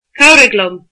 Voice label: "help me", Irish